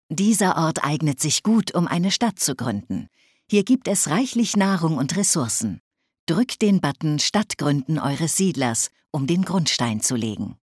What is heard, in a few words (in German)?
Speech, German, Advisors; Civ5; Build City